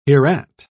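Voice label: "hereat", British English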